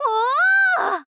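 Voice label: "oo", Japanese, girl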